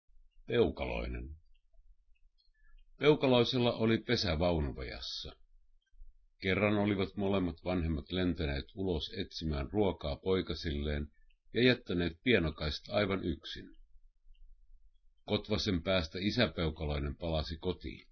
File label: suomi